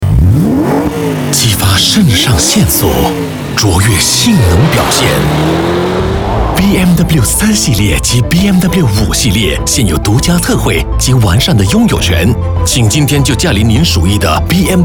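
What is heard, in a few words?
Mandarin; BMW Q4 Tactical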